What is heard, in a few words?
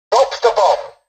tutorial dialogue, english; War Thunder